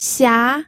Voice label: "xia2", pinyin